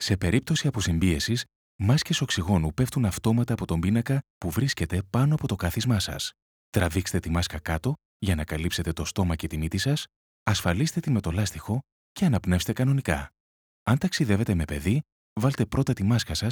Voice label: voice only for airlines in flight instructions; Aegean airlines